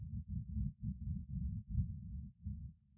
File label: vocode